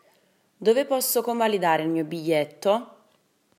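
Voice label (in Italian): "Convalidare", Italian; italybytrain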